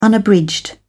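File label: "unabridged", British English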